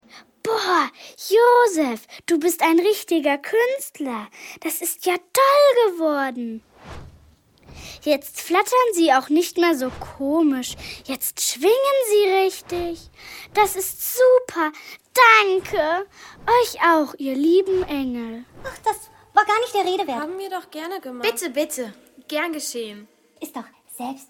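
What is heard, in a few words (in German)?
Hörspielszene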